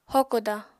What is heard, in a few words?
"hokoda", Apatani